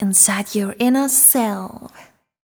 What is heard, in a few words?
fem spo, Vocals